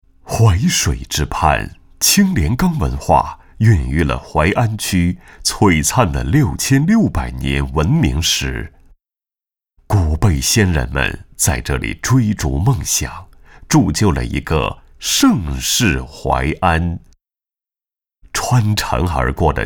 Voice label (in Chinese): 大气城市专题片; 淮安招商片